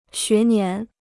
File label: "学年", Chinese